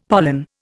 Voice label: "pollen", Indian; Dictionary